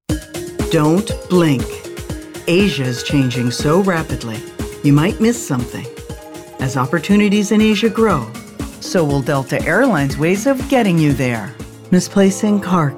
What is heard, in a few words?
Commercial